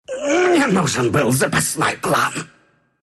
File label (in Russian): death ru; Dota 2